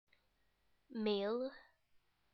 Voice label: male